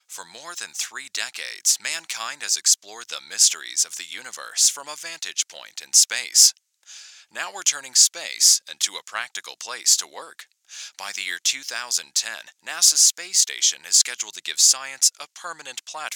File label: DeEss compressor external sidechain trigger